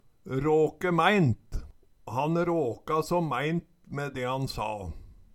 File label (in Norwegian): rake maeint, Numedalsmål; Han råka så mæint me de han sa